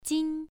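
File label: "jin", Mandarin Chinese, first tone, pronunciation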